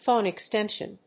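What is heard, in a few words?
"phone extension", English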